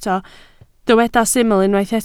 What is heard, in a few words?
"syml", Welsh